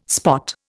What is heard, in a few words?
"spot", Indian